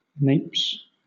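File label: "napes", English, British accent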